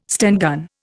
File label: "Sten gun", Indian